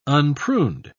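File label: "unpruned", British English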